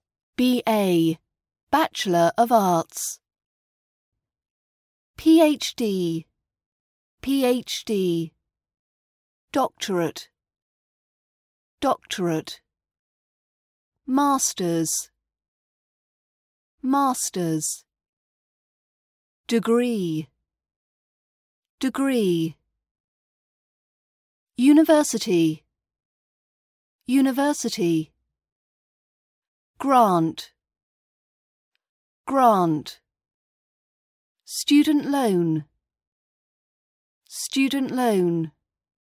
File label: higher education vocab RP British accent pronunciation